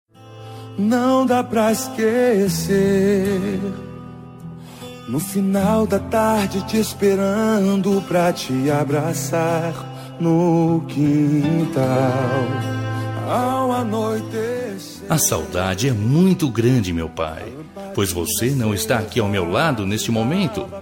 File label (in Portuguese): distante mas, voz masculina; Dia dos Pais